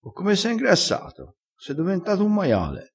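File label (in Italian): "doventa", dialettale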